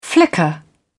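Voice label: "flicker", British English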